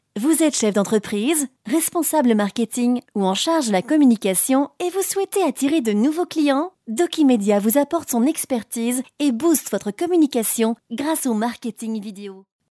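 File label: French, female, commercial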